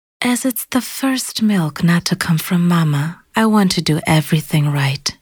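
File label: English US Neutral; Fremdtake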